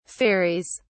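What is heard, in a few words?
"ferries", English, British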